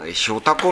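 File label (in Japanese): "yotako", 南山形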